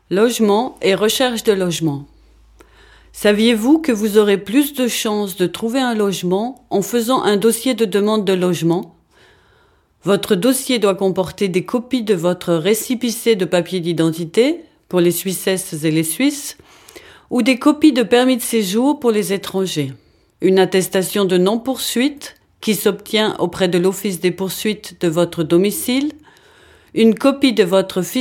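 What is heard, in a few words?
French; wohnen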